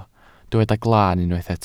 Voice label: "glaan", welsh